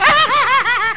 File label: CRAZED